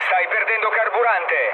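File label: Italian tank damaged mood low, speech; War Thunder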